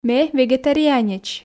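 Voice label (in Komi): ме вегетарианеч, Komi, female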